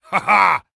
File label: Male Fight Appear; Mighty Party; Android